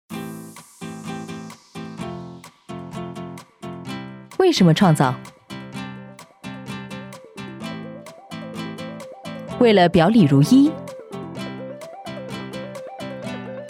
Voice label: 广告 轻快 活泼; 肯德基

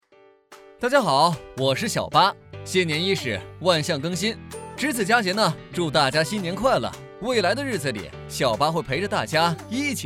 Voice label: 讲解轻松欢快; 元旦

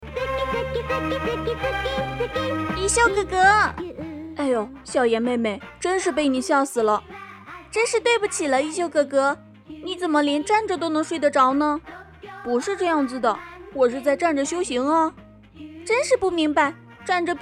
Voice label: 动画角色男童女童

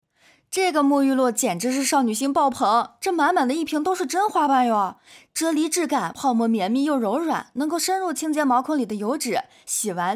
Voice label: 普通话素人沐浴露女卖货

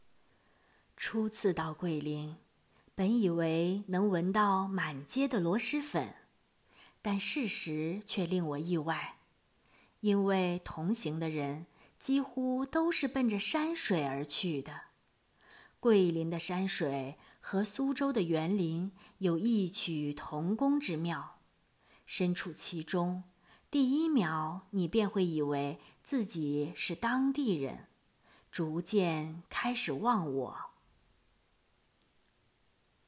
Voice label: 游记文案试音小巧玲珑

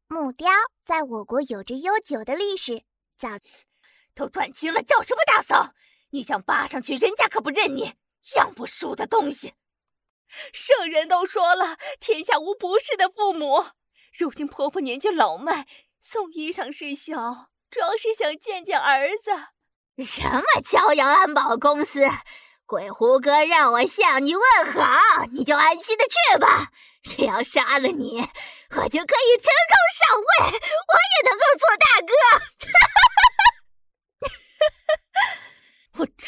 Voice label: 不同声线角色声展

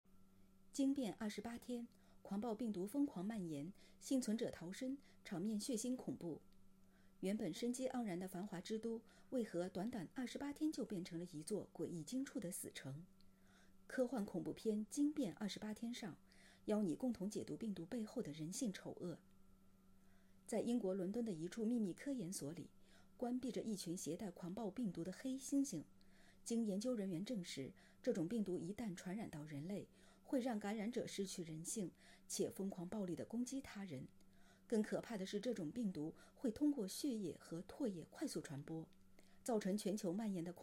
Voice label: 影视解说

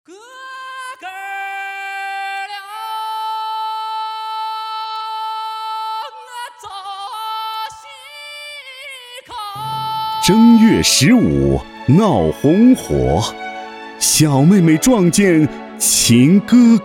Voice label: 民间爱情故事 抒情风格; 走西口

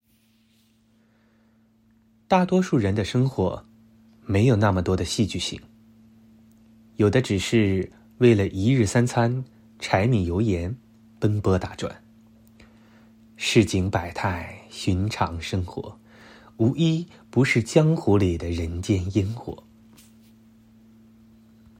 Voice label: 旁白文案

